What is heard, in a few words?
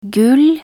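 "gull", Norwegian Bokmål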